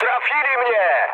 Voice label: aircraft destroyed mood med, speech pol

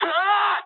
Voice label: jp damaged pilot, speech jp

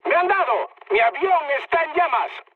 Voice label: on fire, mood med, speech; War Thunder

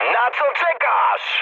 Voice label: attack position mood high, speech pol; War Thunder

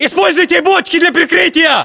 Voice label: order cover barrels, ru; localized english

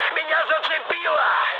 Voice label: damaged pilot, mood high, speech ru; War Thunder

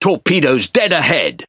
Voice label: Speech Alarms Torpedo Ahead, EN GB; World Of Warships

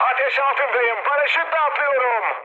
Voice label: on fire, mood high, speech tur; War Thunder

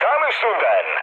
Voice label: bomb success, mood med, speech tur; War Thunder

